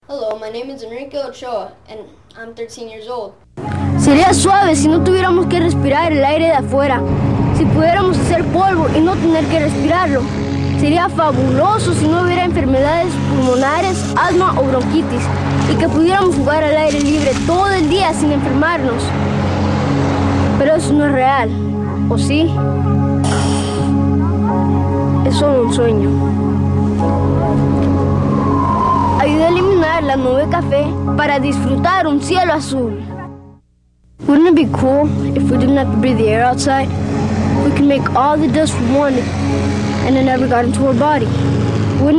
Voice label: Commercial, Male voices